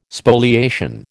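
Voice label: "Spoliation", American English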